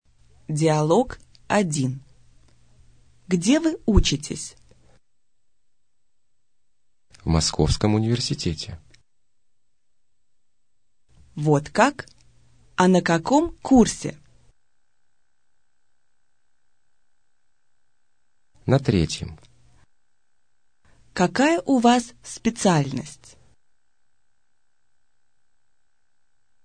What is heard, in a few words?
dialog, russian